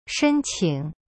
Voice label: "申请" shen qing, chinese; cyberchinese

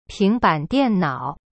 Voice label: "ping2ban3dian4nao3", Chinese; cyberchinese

